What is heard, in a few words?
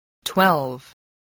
"twelve", English spelling voice